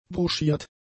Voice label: "broschiert", German; adjectives

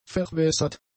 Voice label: "verwässert", German; adjectives

